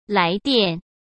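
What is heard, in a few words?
"来电", Mandarin Chinese; hsk; chinese-flashcards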